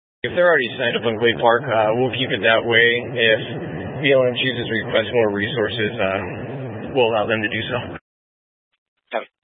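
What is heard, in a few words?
Incident Tactical 1, Scanner audio recording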